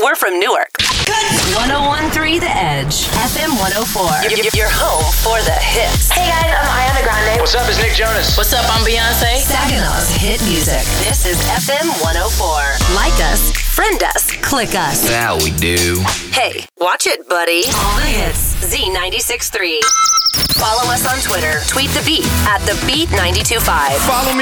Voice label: Radio Imaging